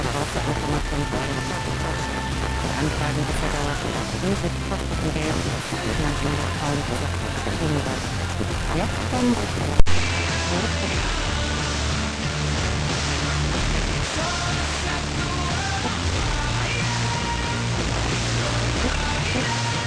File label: 90.5Mhz Mono; Radio Central